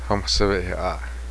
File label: cook female